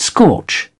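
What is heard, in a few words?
"scorch", British English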